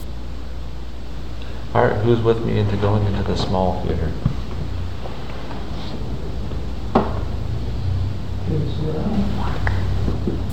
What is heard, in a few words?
Female voice walk or work; evidence